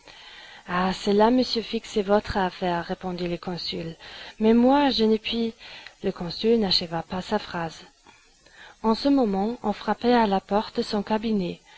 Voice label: female; mls samples; FrenchAnonymizer